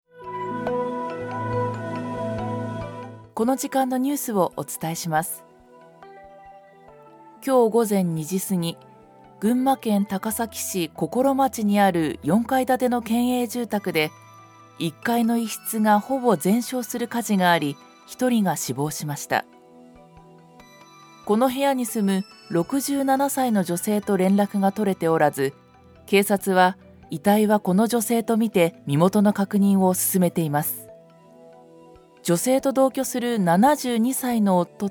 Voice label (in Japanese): ニュース